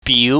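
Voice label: "biu", Cantonese, mid rising tone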